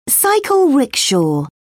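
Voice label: "cycle rickshaw", British English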